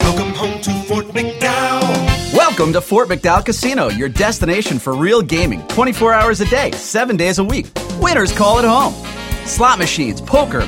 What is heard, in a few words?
voiceover male